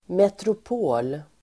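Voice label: "metropol", Swedish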